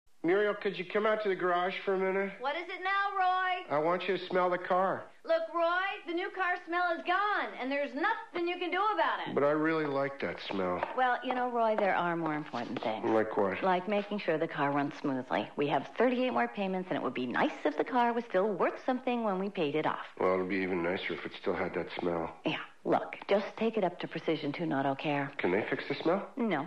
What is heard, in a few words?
commercials